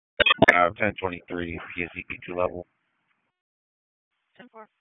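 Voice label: Sheriff's Office Dispatch; WSO DISP 1; wake; p25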